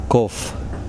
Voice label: water, Kosraean